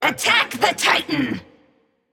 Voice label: VOX Attack M; smite; jingwei